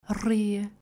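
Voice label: "Rir" Trill, Portuguese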